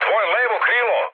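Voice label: Serbian left wing mood med; speech; War Thunder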